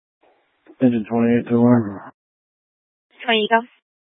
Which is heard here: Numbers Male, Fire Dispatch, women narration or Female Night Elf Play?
Fire Dispatch